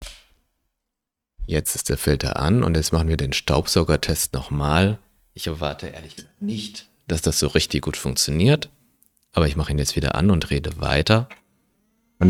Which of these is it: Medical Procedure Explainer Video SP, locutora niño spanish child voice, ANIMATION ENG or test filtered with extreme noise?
test filtered with extreme noise